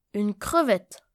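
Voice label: "crevette", French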